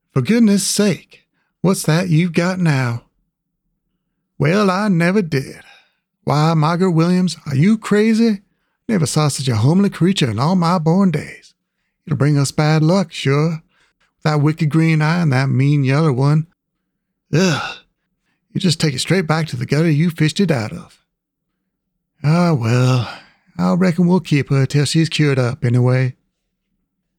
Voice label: Female - American Southern - General; "Homeliest Cat at The Show"